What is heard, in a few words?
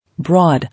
"Broad", English